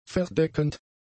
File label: "verdeckend", German; adjectives